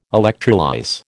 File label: "electrolyse", American